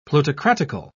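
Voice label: "plutocratical", British English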